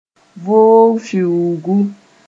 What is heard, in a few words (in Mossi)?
"voog-fiungu", moore